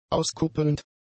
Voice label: "auskuppelnd", German; adjectives